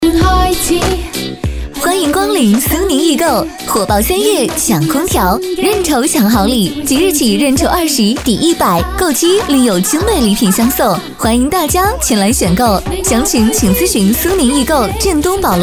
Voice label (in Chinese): 【促销】; 苏宁易购